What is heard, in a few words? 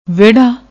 "vehraa", Punjabi; ਵੇੜ੍ਹਾ